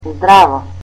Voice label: "hi", Macedonian